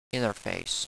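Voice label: "interface", English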